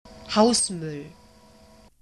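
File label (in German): "hausmuell", German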